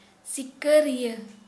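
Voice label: hunter, Santali, female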